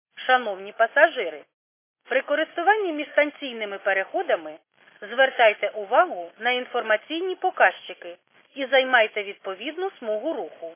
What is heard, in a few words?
khs st female, subway station